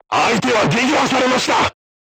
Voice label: voice message target destroyed, crew tanks japanese